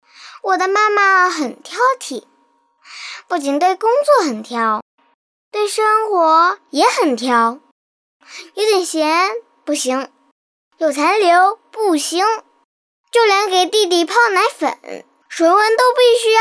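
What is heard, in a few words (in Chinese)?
女大音-男真童-挑剔妈妈; 真童配音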